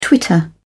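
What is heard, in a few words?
"twitter", English, British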